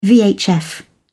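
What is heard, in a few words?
"vhf", British English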